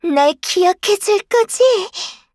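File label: kill Player, Voice Line, Korean; Eternal Return; Garnet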